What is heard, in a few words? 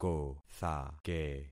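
"kothake", concatenated syllables; Affixing experiment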